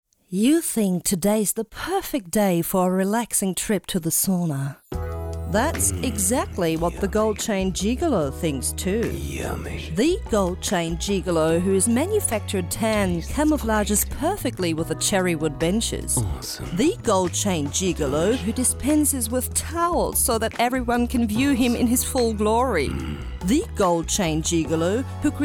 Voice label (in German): englisch radio; klafs